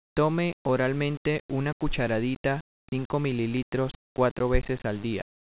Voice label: Spanish, Take one teaspoonful four times a day by mouth.; Tome oralmente una cucharadita (5 mL) cuatro veces al dia.